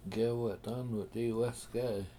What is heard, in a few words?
Coyotes Dont Eat People, Washo